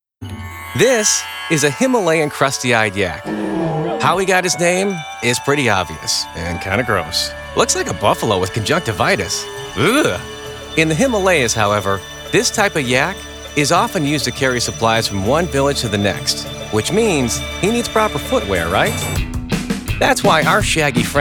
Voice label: Explainer, Male voices

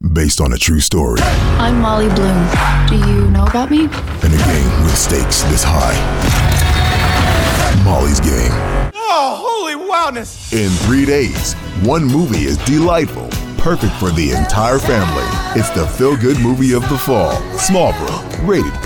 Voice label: Trailer, Male voices

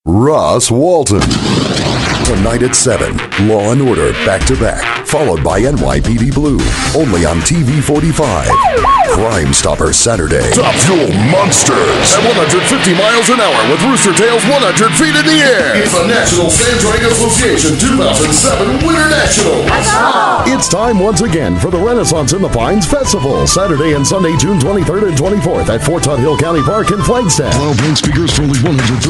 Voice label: Commercial, Male voices

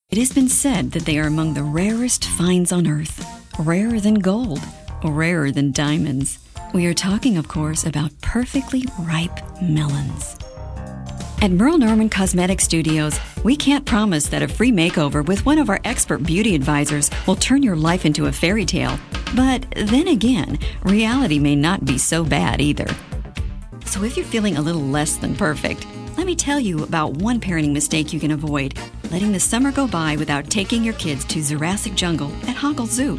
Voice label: Female voices, Commercial

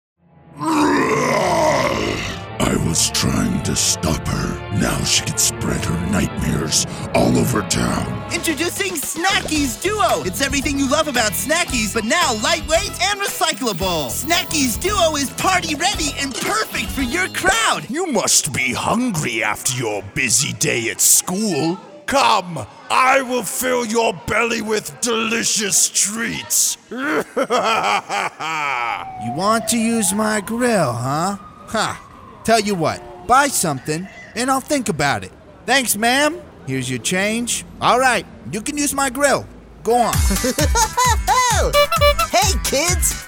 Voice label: Character, Male voices

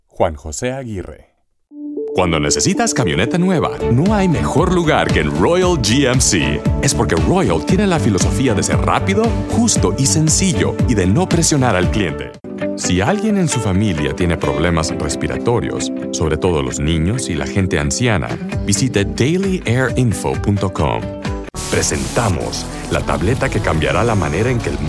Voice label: Spanish Commercial, Male voices

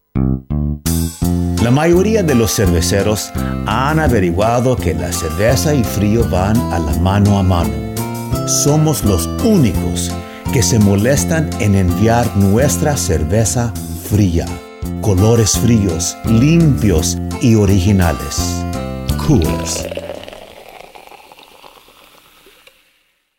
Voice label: Spanish, Male voices

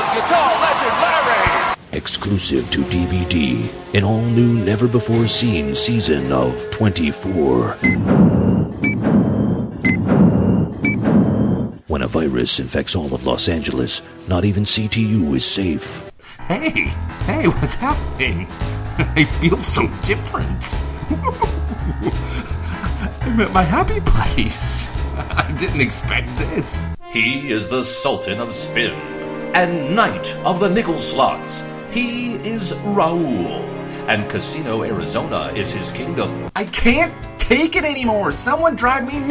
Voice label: Characters, Male voices

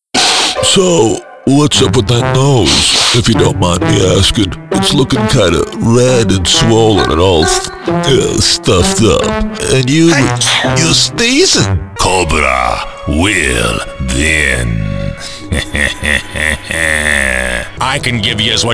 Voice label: Characters, Male voices

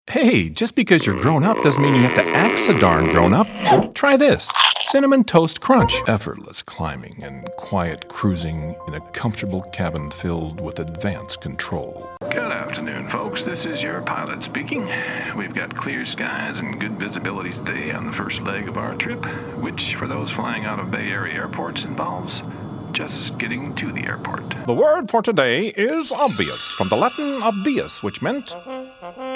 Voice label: Commercial, Male voices

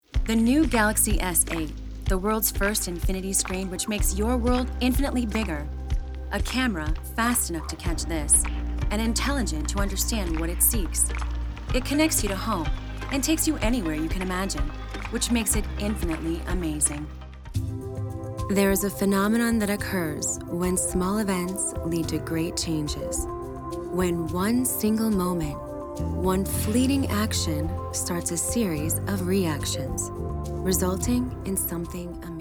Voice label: Narration, Female voices

